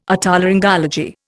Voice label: "Otolaryngology", Indian

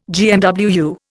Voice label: "GMWU", Indian

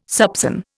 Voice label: "soupÇon", Indian

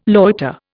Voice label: "loiter", Indian

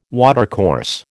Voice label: "watercourse", American

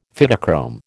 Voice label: "Phytochrome", American

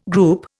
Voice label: "group", Indian

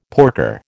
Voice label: "porker", American

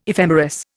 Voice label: "ephemeris", Indian

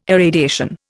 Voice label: "irradiation", Indian

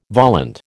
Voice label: "volant", American

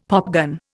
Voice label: "popgun", Indian; Dictionary

